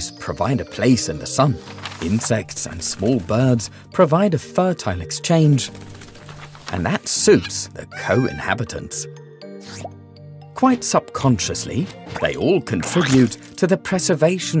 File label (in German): ENGLISCH Rainforest